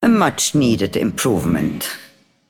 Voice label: Female; Broker; After Shop Upgrade